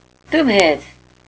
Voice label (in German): "dummhet", erzgebirgisch